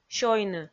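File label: "сёйны", Komi, female; kpv audio dict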